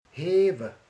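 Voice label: "emel", Hungarian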